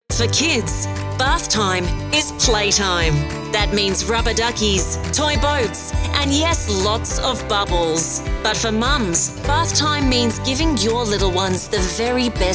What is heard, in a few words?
Australian Commercial